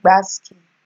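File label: "gbaski", Nigerian Pidgin, female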